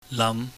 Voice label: "lam", chinese